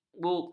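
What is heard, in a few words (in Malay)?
"bukti", Malay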